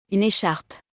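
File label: "Une echarpe", French; vocabulaire; le lexique de l'habillement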